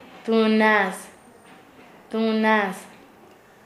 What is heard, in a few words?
"borracho", Nasa Yuwe; paez